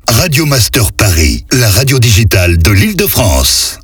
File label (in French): medium Accap, Voix off; Paris; 1ère radio IDF